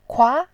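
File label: "kua2", Mandarin Chinese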